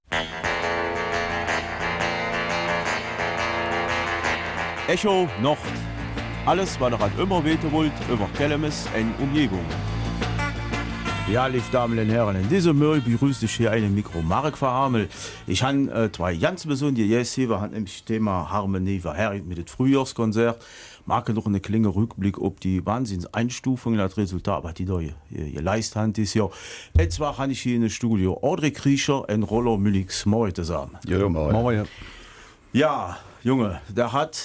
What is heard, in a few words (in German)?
Kelmiser Mundart